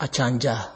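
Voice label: "achanja", Nigerian Pidgin, male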